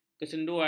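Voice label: "kesenduan", Malay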